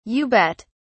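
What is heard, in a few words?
"you bet", British English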